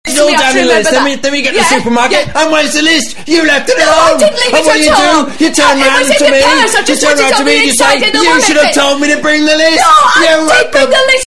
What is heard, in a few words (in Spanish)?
discusion tv